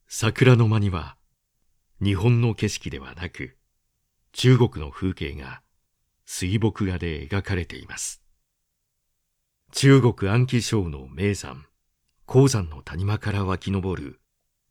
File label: male